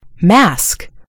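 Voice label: "mask", American English; gcompris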